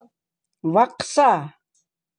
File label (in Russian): УЛЬЧСКИЙ; Вакса; транскрипция [вакса]; Нос